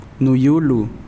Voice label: Nawat palabras corazón; pipil

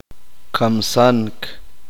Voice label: uspanteko matar

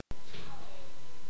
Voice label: piojo, kaqchikel

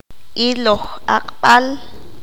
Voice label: "Velorio", qanjobal

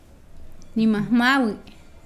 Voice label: Nawat palabras miedo; pipil

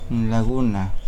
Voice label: Nawat palabras lago; pipil

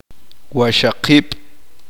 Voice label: "ocho", uspanteko

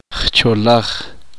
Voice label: uspanteko correcto

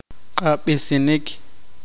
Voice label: luchar pelear, poqomchi

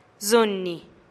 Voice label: "zunih" tlacochahuaya, teotitlan

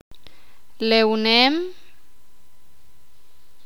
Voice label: "nadar", sakapulteko

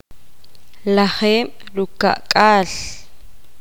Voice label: poqomam treinta

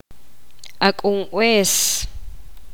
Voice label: poqomam hijo de hombre

